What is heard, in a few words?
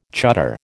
"chuddar", American